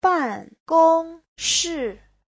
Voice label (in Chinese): "ban4gong1shi4", 办公室; (danh từ) văn phòng